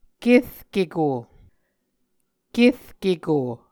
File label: "kerkeko", Muscogee; Mvskoke Words with Voicing Consonants; A Dictionary of Creek/Muskogee; SIL International; Onvkv Ohhvyayices